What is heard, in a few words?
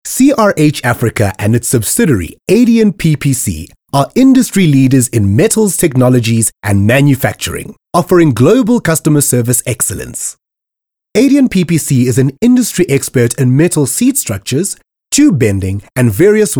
Corporate Explainer; CRH Africa